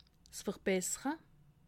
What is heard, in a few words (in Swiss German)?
"verbessere", alsace